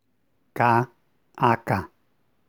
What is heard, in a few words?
k unaspirated